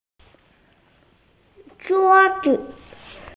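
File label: "zhuo1zi5", Mandarin Chinese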